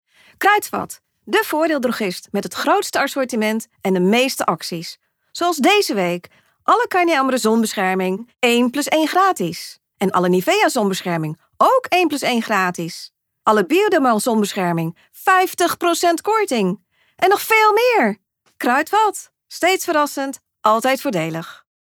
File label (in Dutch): selling; Kruidvat